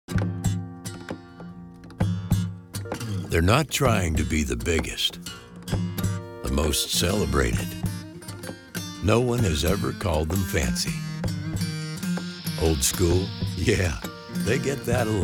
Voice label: Corporate Narration, voiceover